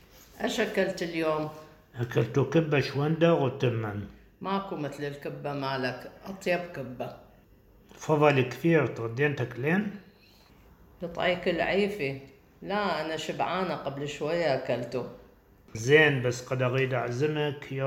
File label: Baghdadi Judeo-Arabic; Audio recording 6.1.1 to accompany section on Lesson 6: Inviting someone to eat, Locations and Transportation; (what did you eat today?)